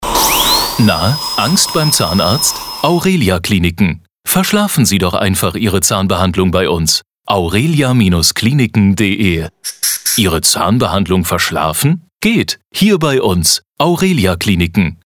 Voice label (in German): Radiospot; Aurelia Kliniken